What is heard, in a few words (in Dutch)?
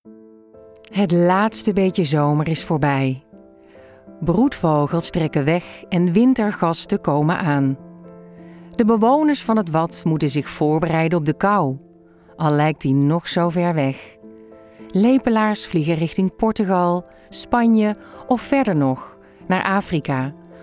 Docu; Broedvogels